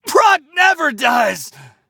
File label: hit, en; cookie run